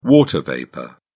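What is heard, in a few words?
"water vapour", British English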